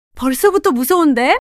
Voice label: surprise, monologue

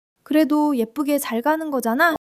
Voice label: joy; monologue

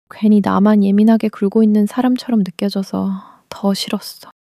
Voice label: anger

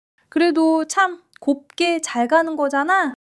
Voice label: joy; monologue

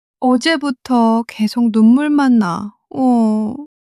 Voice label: crying, monologue

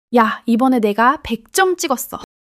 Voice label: excitement

